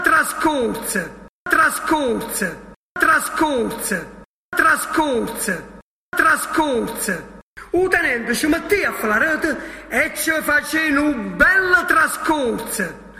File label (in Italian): Discorso, dialetto di foggia; trascurze